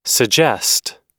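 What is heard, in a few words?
"suggest", US English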